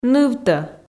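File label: "нывтӧ", Komi, female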